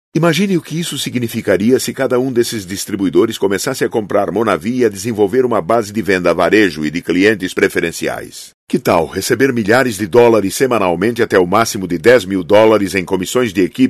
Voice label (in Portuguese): locucao; usa